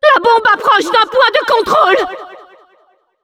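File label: Announcer cart attacker warning, French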